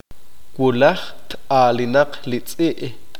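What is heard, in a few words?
"mañana el perro correrá", Q'eqchi'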